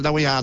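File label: "that we had", Irish English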